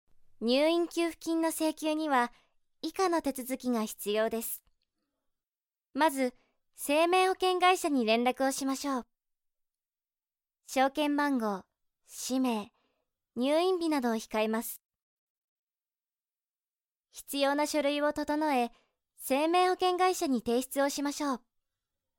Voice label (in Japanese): ナレーション; 入院給付金